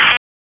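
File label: Raleigh Police Northeast District Tactical, p25; wake p25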